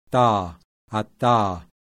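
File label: laminal voiceless alveolar plosive, IPA sounds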